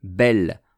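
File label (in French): "belles", French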